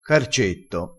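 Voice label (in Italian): "carcetto", dialettale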